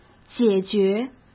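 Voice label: "解决", Mandarin Chinese; chinese-flashcards; hsk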